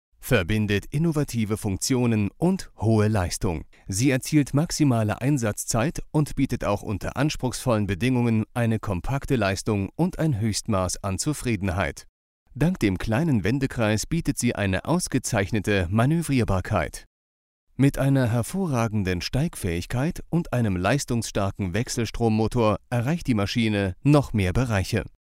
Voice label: German